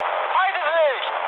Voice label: enemy detected, mood high, speech ge; War Thunder